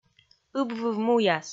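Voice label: "ыб выв муяс", Komi, female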